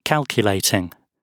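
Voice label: "calculating", British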